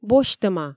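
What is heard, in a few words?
"босьтӧма", Komi, female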